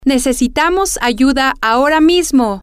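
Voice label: we need help right now, spanish, female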